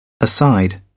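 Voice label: "aside", English, British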